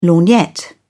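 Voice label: "lorgnette", British English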